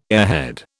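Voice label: "airhead", British English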